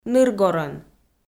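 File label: "ныргорӧн", Komi, female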